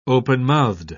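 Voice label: "openmouthed", British English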